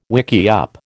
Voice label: "wickiup", American